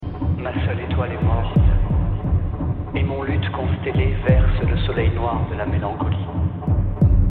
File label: french